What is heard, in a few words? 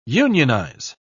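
"unionise", British English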